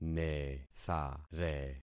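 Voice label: "nethare", concatenated syllables; Affixing experiment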